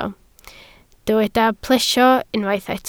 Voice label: "plesio", Welsh

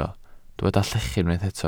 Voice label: "llechu", Welsh

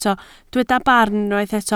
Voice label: "barn", Welsh

